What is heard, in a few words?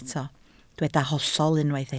"hollol", Welsh